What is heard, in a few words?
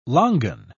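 "longan", British English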